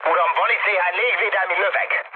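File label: Hungarian damaged aa mood med, speech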